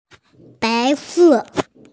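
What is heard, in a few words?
"bai2 se4", Mandarin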